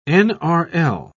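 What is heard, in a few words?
"nrl", British English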